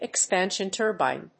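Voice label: "expansion turbine", English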